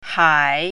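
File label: "hai3", Chinese pinyin, third tone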